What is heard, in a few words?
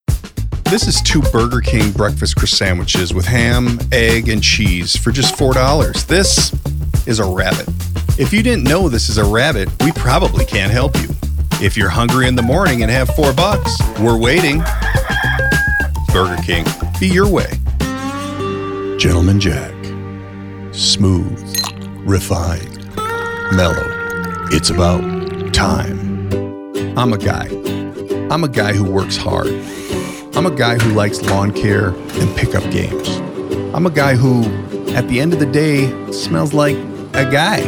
Commercial